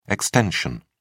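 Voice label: "ext", British English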